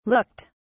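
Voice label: "looked", British English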